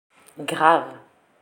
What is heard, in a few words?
"grave", French; slang words